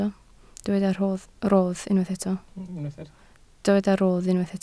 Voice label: "rodd", Welsh

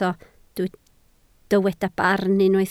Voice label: "barn", Welsh

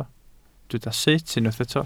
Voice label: "sut", Welsh

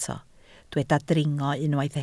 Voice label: "dringo", Welsh

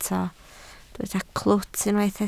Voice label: "clwt", Welsh

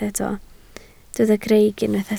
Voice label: "greic", Welsh

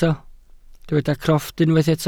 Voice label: "crofft", welsh

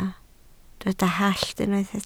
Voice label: "hallt", Welsh

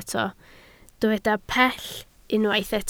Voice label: "pell", Welsh

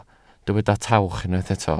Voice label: "tawch", Welsh

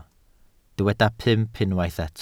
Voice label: "pump", Welsh

